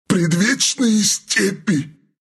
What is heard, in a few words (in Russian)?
death, ru